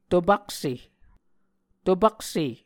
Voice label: "topakse", Muscogee

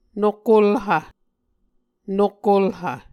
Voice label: "nokkolhv", Muscogee; Mvskoke Words with Repeated Consonants; Martin, Jack B., and Margaret McKane Mauldin. 2023. A Dictionary of Creek/Muskogee

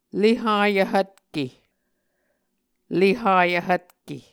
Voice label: "le hayv hvtke", Muscogee

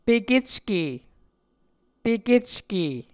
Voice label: "pekecke", Muscogee

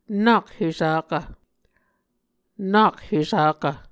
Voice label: "nak hesakv", Muscogee

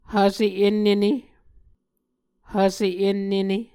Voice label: "hvse ennene", Muscogee

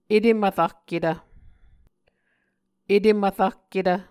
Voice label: "etemvrahketv", muscogee

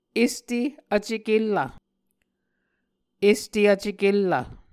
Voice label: "este vcekellv", Muscogee

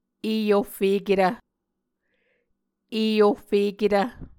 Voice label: "eohfeketv", Muscogee

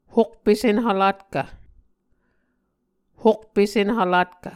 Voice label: "hokpe senhvlatkv", Muscogee

